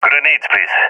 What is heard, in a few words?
request grenade, balkan; vo; balkan epic